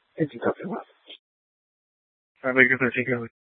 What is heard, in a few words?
PD Dispatch, scanner; TOPAZ Regional Wrlss Cooperative (TRWC) - Mesa Simulcast; Mesa PD Dptmnt; 1 Fiesta Dispatch